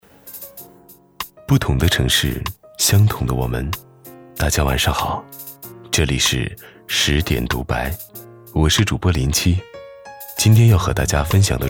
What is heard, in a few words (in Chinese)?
电台广告样音